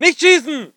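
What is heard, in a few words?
voice german infantry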